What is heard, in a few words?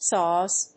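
"saws", English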